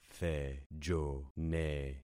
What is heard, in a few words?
"thejone", concat syllables; Affixing experiment